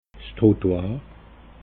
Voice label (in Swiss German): "trottoir", alsace